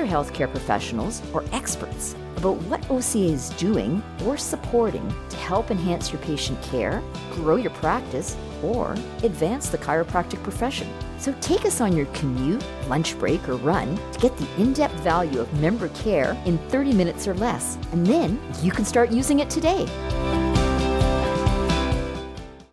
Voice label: spinal chat trailer

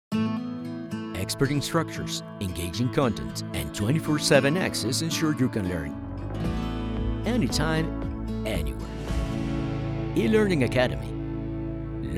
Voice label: Commercial, English

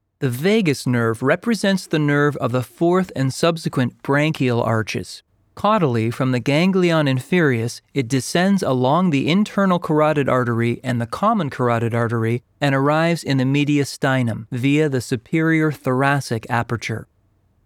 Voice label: Medical Narration

